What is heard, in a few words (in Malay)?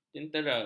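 "jentera", Malay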